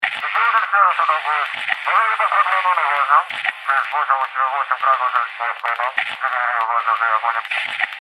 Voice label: radio voice